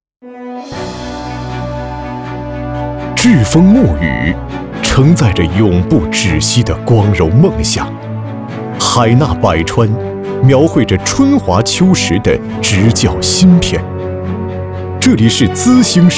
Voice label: 男 学校专题 学校专题片

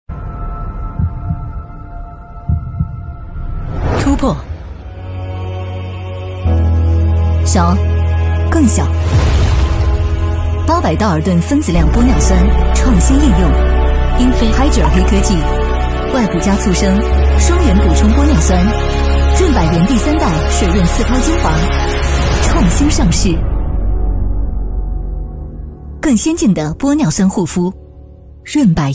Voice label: 女 TVC; 张继科官方润百颜

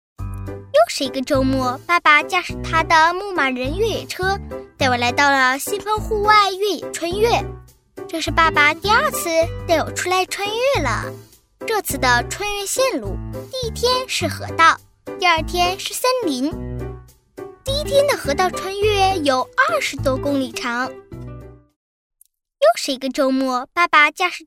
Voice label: 男 真童音 女童样音; 我和爸爸

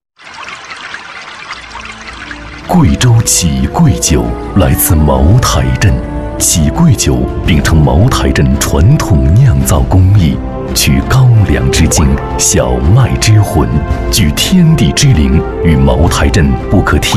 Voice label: 男, 广告; 贵州启贵酒

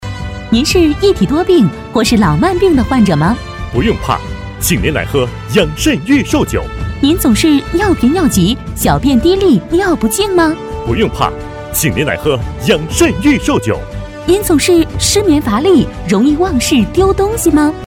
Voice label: 女 医药广告 力度

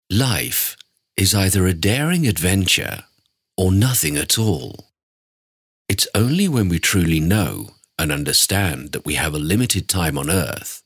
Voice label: 舒缓

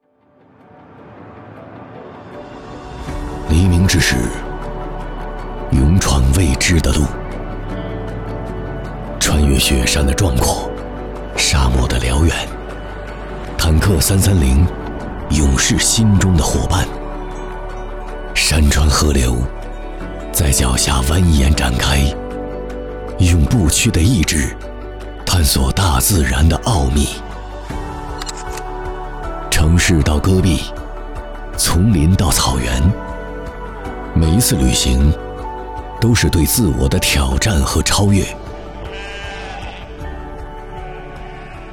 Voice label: 男, 广告, 旁白, 励志; 坦克330

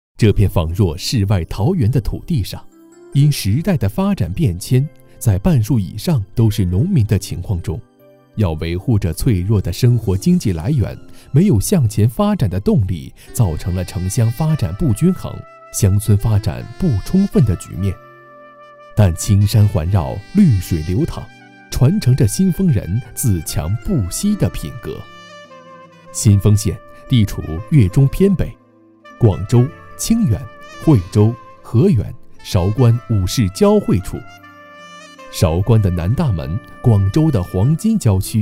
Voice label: 男, 解说, 文化旅游; 新丰县

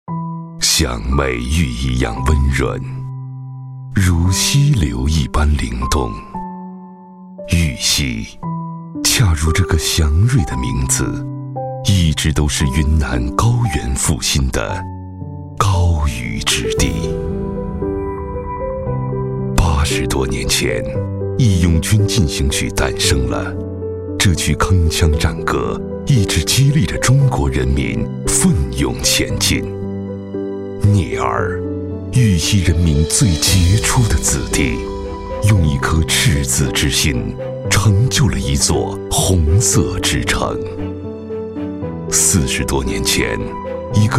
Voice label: 男 独白; 玉溪向未来

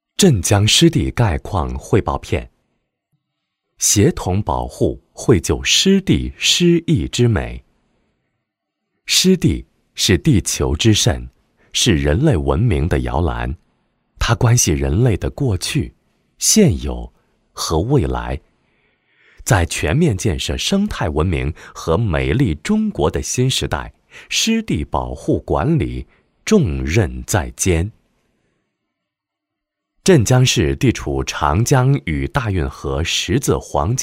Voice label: 男 中文 专题 汇报 湿地概况; 镇江湿地概况

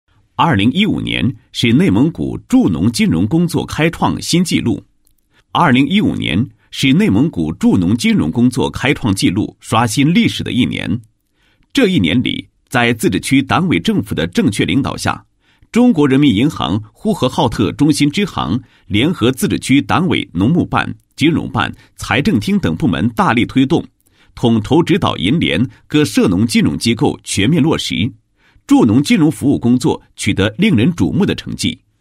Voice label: 男27-工作纪实; 助农金融服务

